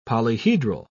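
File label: "polyhedral", British English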